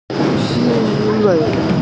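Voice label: "ci4wei5", Mandarin Chinese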